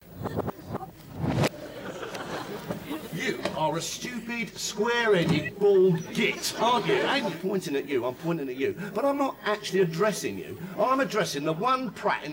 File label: backwards speech